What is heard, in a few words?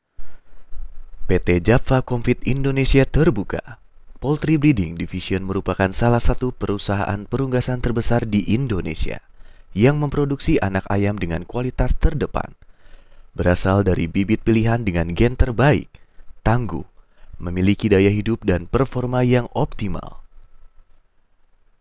indonesia formal